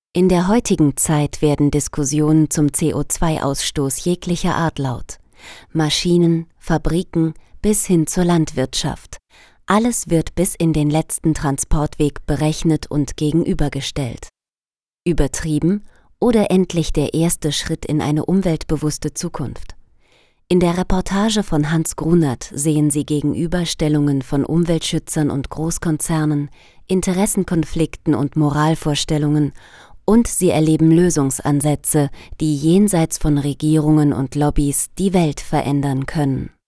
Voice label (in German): Sanft